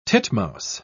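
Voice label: "titmouse", English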